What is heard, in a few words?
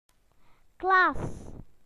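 "classroom", British English; PictureDictionary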